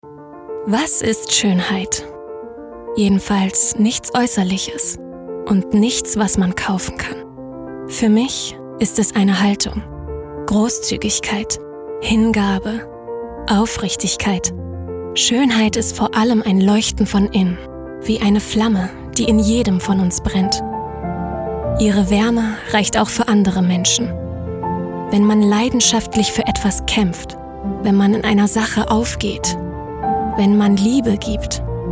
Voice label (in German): Sprecherdemo Schoenheit